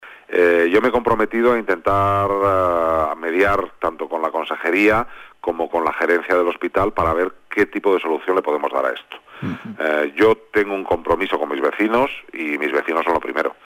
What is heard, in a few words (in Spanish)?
noticias